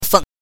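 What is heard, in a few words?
"feng", Mandarin Chinese pinyin, neutral tone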